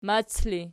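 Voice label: "mac" ergative, Archi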